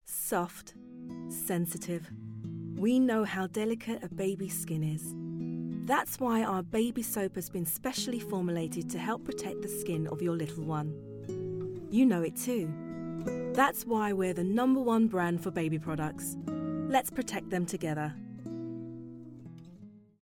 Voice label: commercial